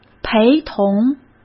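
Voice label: "陪同", Mandarin Chinese; chinese-flashcards; hsk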